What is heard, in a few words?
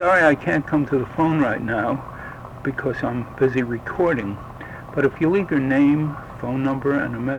answering machine outgoing message